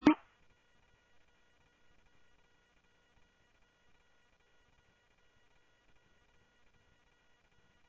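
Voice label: Sheriff East County, scanner audio trunk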